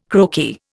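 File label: "croaky", Indian English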